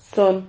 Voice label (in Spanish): "zon", Silabas